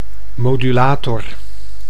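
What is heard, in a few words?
"modulator", Dutch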